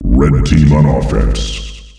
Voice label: Red Team on Offence, announcer male; Unreal Tournament; ut2004

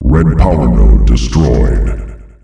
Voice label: Red powernode destroyed, announcer male; Unreal Tournament; ut2004

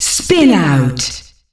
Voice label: Spin Out, female announcer; Unreal Tournament; ut2004

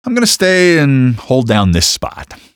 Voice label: hold down spot, male; Half-Life 2

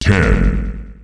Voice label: "ten", announcer male; Unreal Tournament; ut2004

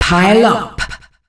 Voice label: Pile up, Announcer Voice, female; Unreal Tournament; ut2004

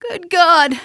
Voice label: good god, female; Half-Life 2

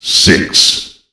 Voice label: "six", announcer evil; Unreal Tournament; ut2003

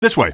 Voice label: follow, male; coast; odessa; stairman

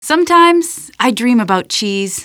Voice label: question, female; Half-Life 2

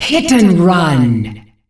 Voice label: "Hit and run", announcer female; Unreal Tournament; ut2004

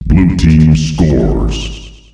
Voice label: "Blue Team Scores", announcer male; Unreal Tournament; ut2004

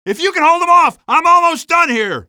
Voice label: male; streetwar; tunnel

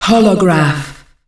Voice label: "Holograph", announcer female; Unreal Tournament; ut2004

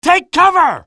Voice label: take cover, male; Half-Life 2

